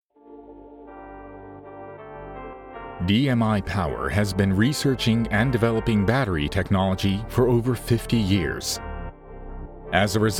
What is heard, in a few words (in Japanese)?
VP 企業紹介 展示会 イベント等 知性的 優しい; テクノロジー紹介映像